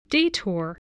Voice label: "detour", english spelling

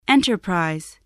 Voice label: "enterprise", voice, english

